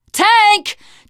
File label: warn tank, teen girl, survivor voice